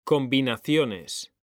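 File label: "COMBINACIONES", Spanish; mekanta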